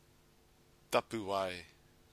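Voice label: "tapuae", Māori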